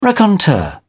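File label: "raconteur", British English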